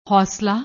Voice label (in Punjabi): "hosla", Punjabi; ਹੌਸਲਾ